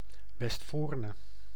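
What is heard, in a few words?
"Westvoorne", Dutch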